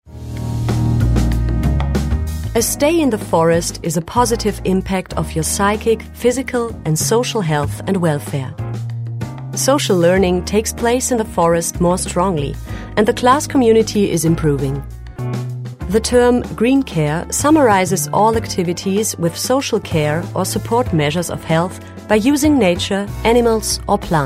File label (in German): Imagefilm ENGLISCH; Hochschule fuer Agrar und Umweltpaedagogik